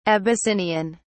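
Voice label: "abyssinian", English, British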